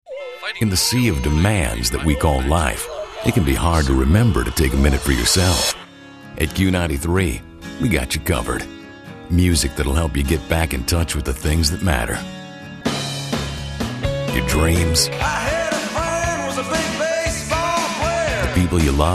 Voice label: triple aaa, radio imaging